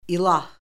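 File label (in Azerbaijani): "ilah", Azerbaijani; Orfoqrafiya Lüğəti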